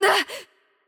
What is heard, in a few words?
VO Hurt Light, it IT; bloom minigame